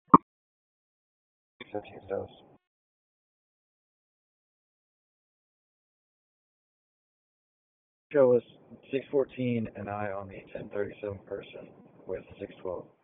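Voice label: Police Southwest District Dispatch; Raleigh; wake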